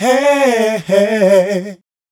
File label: Male Vocal; Mac Money